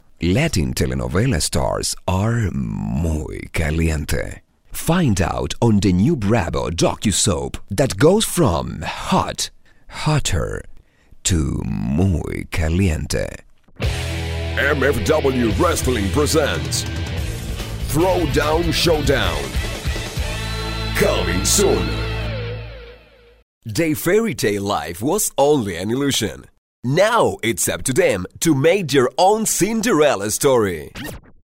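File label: Spanish English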